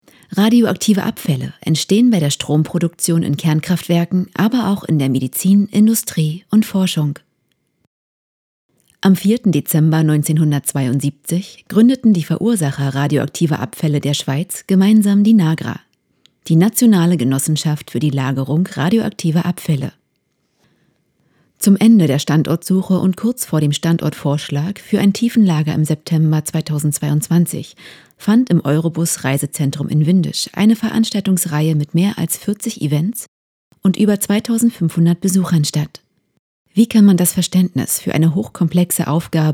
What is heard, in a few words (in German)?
Info - Projektvorstellung, Sprecherin